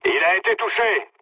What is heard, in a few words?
aircraft destroyed mood low, speech fr